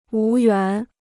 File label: "无缘", Chinese